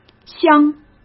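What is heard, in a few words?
"香", Mandarin Chinese; chinese flashcards; hsk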